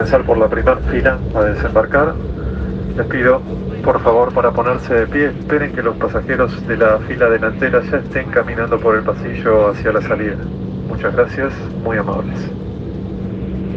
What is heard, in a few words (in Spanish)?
ANUNCIO DESEMBARQUE